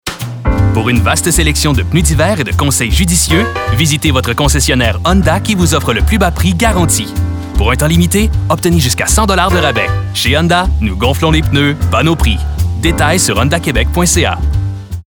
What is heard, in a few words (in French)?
Pub Honda Pneus D Hiver